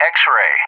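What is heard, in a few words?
"X-ray", English; JTAC; Alphabet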